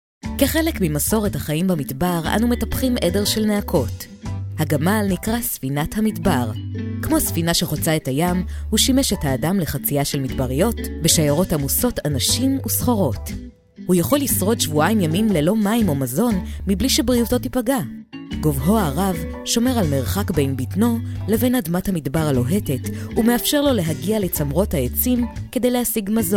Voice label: hebrew commercial; Israel; I am a 37 years old voice artist, based in Israel

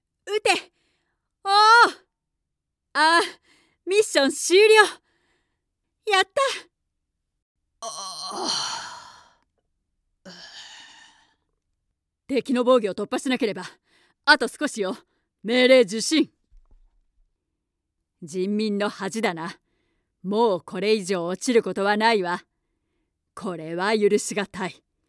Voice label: japanese video games

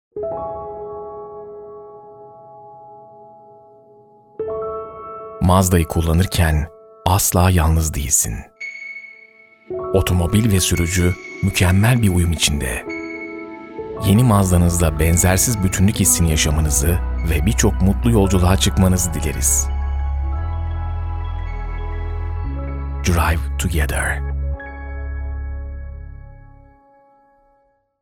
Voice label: Turkish automotive

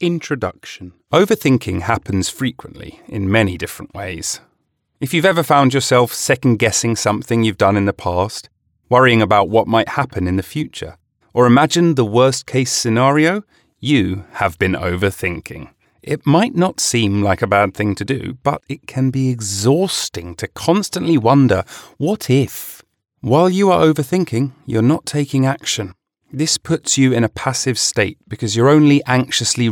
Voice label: English British audiobooks

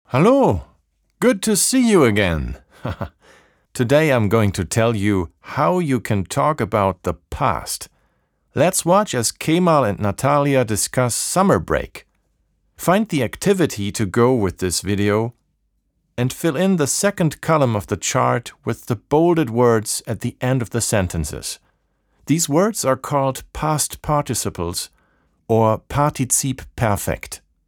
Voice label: english e-learning; actor de doblaje profesional

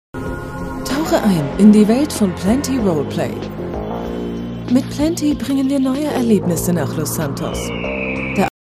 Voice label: german switzerland video games

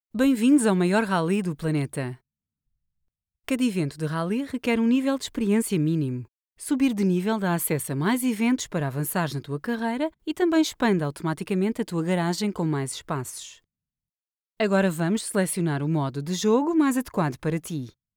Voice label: portuguese video games

